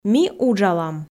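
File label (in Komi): Komi, ми уджалам, female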